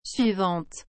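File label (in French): "suivante", French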